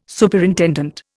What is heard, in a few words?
"Superintendent", Indian